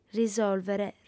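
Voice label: "risòlvere", Sardinian, female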